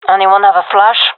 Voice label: request flash, gendarmerie fem; vo

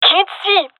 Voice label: blinded, fem; vo; gendermerie fem epic

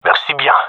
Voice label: thankful, male; vo

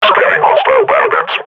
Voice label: combat idle, grunt

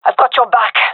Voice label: covering friend, gendermerie fem; vo

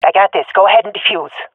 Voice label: cover defuse, swat fem; Counter Strike Model Pack; CS:GO

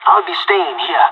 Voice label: waiting here, pirate; Counter Strike Model Pack; CS:GO

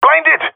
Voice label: blinded, jungle male epic; player vo; Counter Strike Model Pack; CS:GO

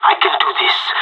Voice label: scared emote, pirate; Counter Strike Model Pack; CS:GO

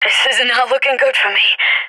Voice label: scared; Counter Strike Model Pack; CS:GO

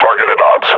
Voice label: player is hurt, grunt; Half Life Model Pack

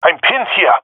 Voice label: pinned, jungle male epic; Source Model Packs; Counter Strike Model Pack; CS2

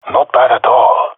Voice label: compliment, jungle male epic; player vo; Counter Strike Model Pack; CS:GO

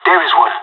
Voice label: radio enemy spotted, pirate; Counter Strike Model Pack; CS:GO

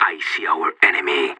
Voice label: radio enemy spotted, separatist; Counter Strike; CS:GO

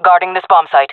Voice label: guarding bombsite, jungle fem; player vo jungle fem

